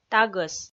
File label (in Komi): "тагӧс", Komi